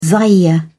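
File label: "zaire", British English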